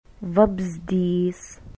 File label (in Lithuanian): "vabzdys", lithuanian